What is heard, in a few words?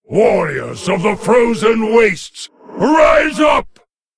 Male Lich Font of Power; en